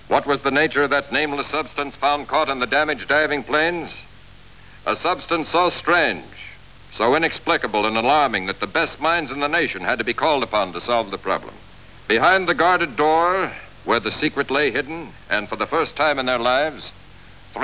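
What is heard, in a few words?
narration; Narrator: "What was the nature of that nameless substance..."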